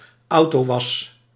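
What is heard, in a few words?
"autowas", Dutch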